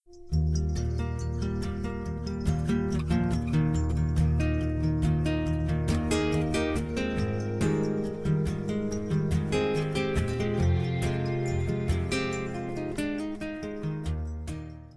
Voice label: NEUTRA M; Telemensagens 2017

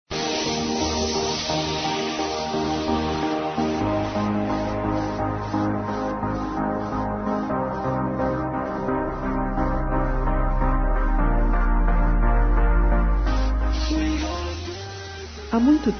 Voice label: PRIMO(A) F; Telemensagens 2017; Aniversários; Aniv Familiar

